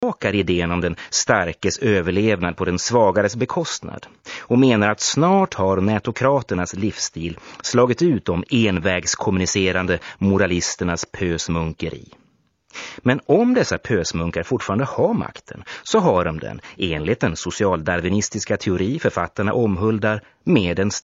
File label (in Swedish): svensk man